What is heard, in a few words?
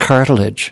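"cartilage", English word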